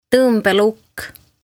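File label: "Tombelukk", Estonian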